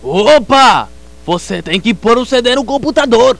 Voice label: NOCD Portuguese